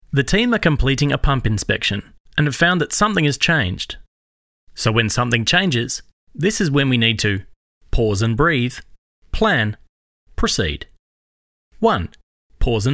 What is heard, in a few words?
Corporate Pause, Radio Commercials / Physio Promotional Script, Natural Male Voice